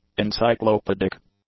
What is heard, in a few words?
"encyclopaedic", English